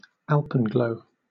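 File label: "alpenglow", British English; pronunciations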